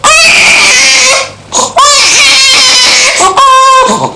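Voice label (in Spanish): "bebe", Spanish; Profanglais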